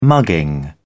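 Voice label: "mugging", British English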